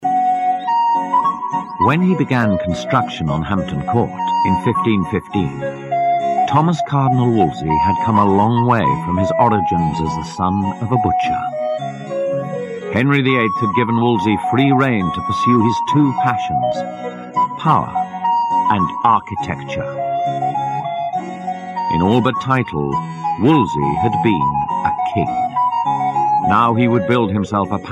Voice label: Docu Narration; Wolsey